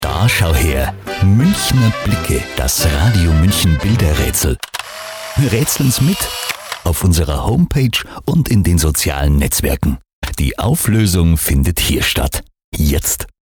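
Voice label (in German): Bumper Bilderrätsel bairisch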